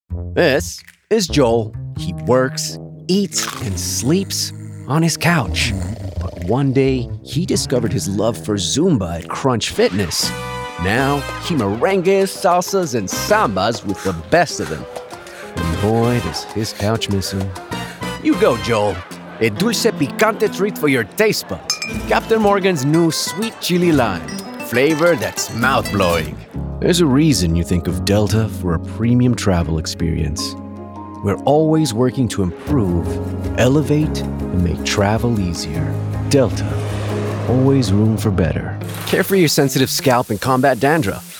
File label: Commercial; English / Spanish / Italian